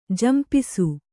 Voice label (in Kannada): "ಝಂಪಿಸು", Kannada